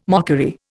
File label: "mockery", Indian